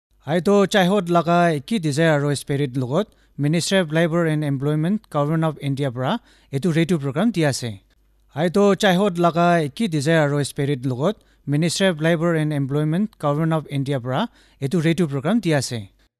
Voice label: Male

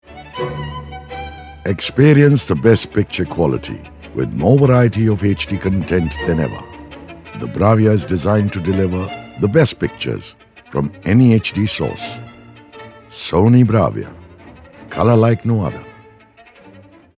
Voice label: Eng

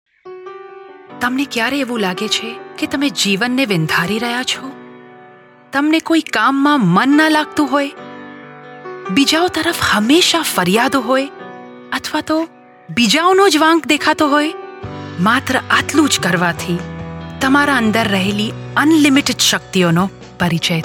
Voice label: TVC or Audiobook Gujarati

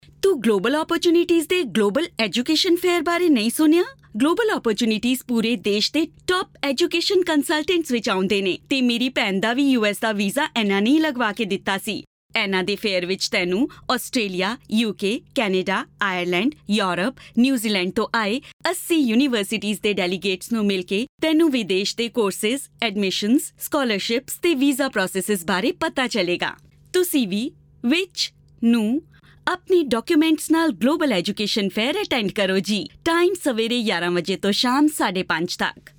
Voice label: prompt punjabi

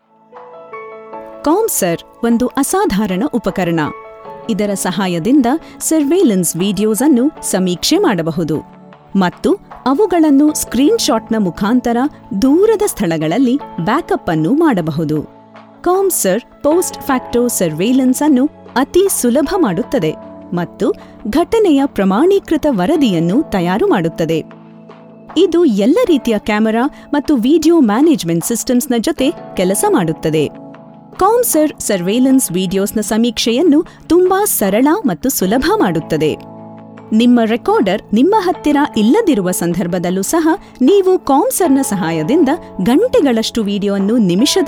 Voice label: Explainer Kannada

